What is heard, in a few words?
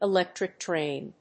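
"Electric Train", English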